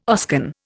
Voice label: "Oscan", Indian